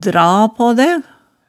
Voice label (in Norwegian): "dra paa dæ", hemsedalsmaalet tale